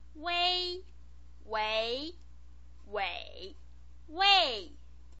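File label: "uei", Mandarin Chinese pinyin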